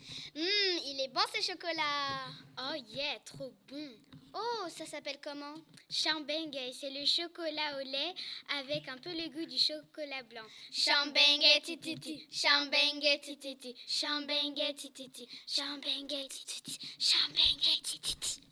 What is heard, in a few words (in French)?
pub chocolat